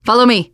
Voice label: follow me, teengirl, voice